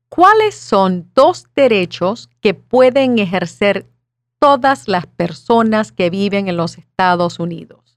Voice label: Spanish